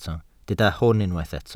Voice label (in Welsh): "hwn" Welsh